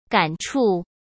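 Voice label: "感触", Mandarin Chinese; chinese-flashcards; hsk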